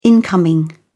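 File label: "incoming", British English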